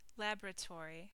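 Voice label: "laboratory", US English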